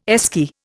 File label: "Esky", Indian